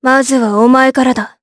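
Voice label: Vox Skill, voices, Japanese; Tanya; kingsraid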